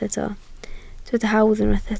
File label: "hawdd", Welsh